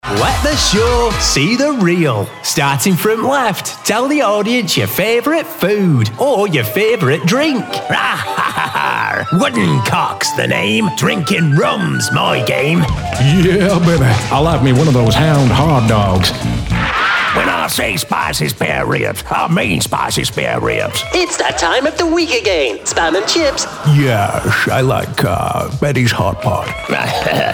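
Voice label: Impersonation character, British Male Voice Over; Impersonation/Character Showreel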